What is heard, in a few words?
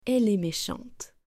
"elle est méchante", French